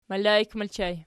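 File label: "melaik" ergative plural, Archi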